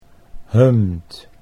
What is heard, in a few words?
"hummed", Dutch English